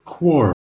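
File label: "kwor", Proto-Indo-European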